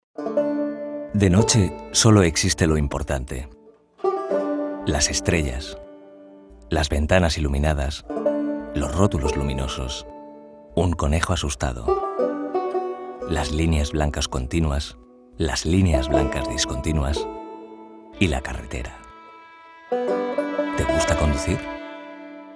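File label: Spanish corporate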